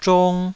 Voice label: "zhong2", Taiwanese syllable

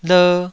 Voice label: "ler2", Taiwanese

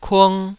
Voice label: "kung2", Taiwanese syllable

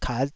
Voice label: "kat5", taiwanese syllables

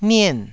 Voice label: "nien4", taiwanese

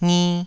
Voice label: "ngi2", taiwanese syllables

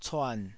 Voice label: "cuan3", Taiwanese syllable

